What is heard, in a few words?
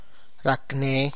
"help", tlacochahuaya